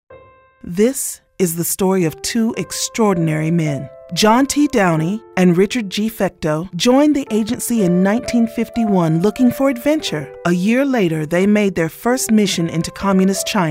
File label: storytellers